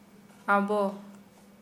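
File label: we, Santali, female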